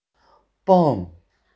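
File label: "beng4" Chinese, female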